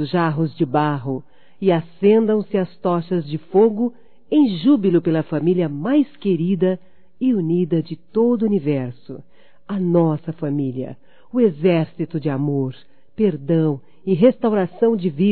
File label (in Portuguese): BODAS DE PRATA F; Telemensagens 2017; Bodas de casamento